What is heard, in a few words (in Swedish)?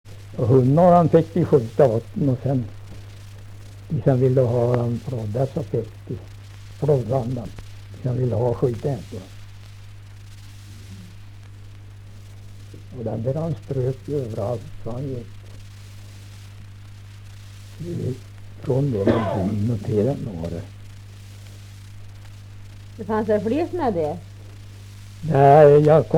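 Lerbäck Närke, dialekter, Svenska sagor; Folkminnen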